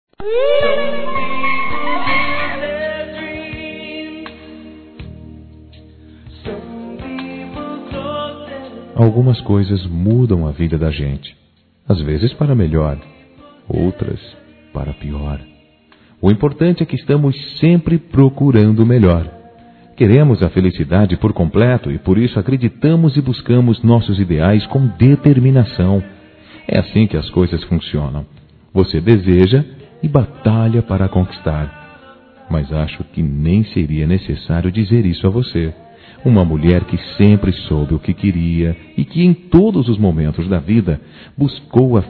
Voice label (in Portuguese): Parabéns Pela Maternidade Masculino; Telemensagens; Felicitações; Telemensagens 2017